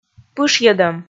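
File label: "пышйӧдӧм", Komi, female; kpv audio dict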